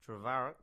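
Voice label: "Trevarrack", English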